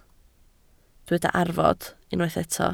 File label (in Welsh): "arfod", Welsh